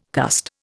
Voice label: "cussed", Indian